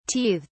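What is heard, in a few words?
"teethed", British English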